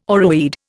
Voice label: "oreweed", Indian

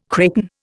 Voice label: "kraken", Indian

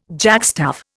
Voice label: "jackstaff", Indian

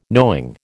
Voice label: "knowing", American

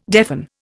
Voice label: "deafen", Indian

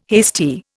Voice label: "hasty", Indian

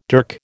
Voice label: "dirk", American

